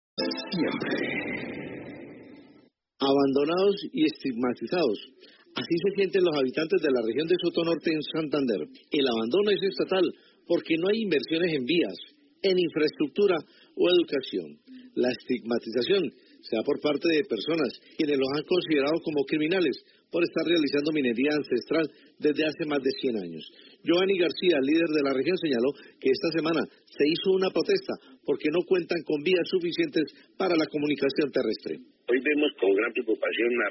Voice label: radio; accesoradio